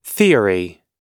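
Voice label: "theory", US English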